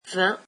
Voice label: "twenty", french